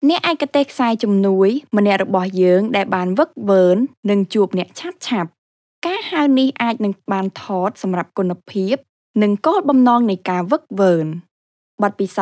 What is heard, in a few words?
KHMER telephony